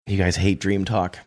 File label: quietly saying you guys hate dream talk